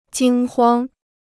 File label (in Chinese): "惊慌", Chinese